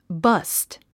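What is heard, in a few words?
"bust", English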